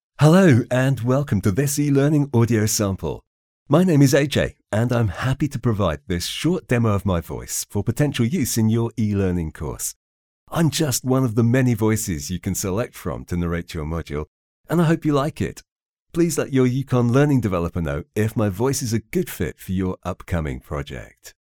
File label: Casual, British accent, e-learn; Are you hoping for a more conversational or casual tone for your course?